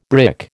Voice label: "brick", American